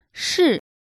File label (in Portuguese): "sim" em mandarim